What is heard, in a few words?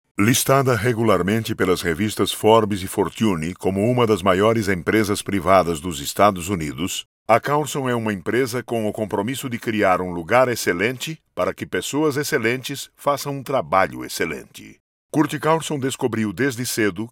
voice over brazilian portuguese voice over talent narrator; CARLSON USA and Metodo Pilates Brazil